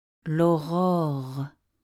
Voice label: "l'aurore" French